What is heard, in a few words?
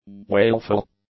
"wailful", English; adjectives